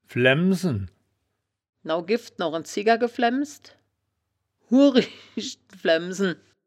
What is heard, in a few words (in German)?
"flaemmsen", wittlicher